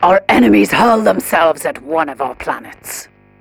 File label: advisor notification enemy troops landing, militarist; Stellaris; ethics